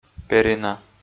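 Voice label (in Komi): "перина", Komi, male; audio dict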